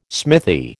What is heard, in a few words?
"smithy", American